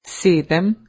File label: Slovenian, seven